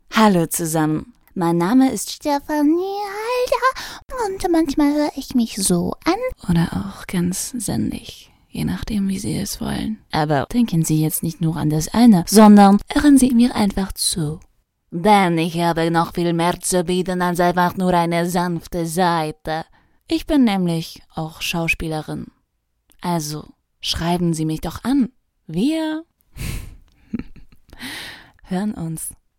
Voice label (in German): Synchron